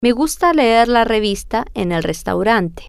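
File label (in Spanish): Me Gusta Leer Revista En Restaurante, spanish, female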